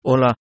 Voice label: hello, Spanish; DarkTonic; MasterAudio